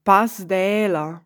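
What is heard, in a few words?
"Pass d'Ela" Surmiran Romansh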